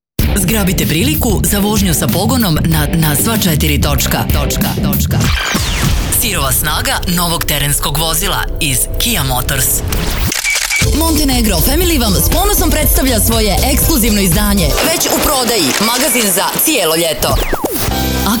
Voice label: voiceover